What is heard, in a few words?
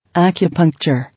"Acupuncture" English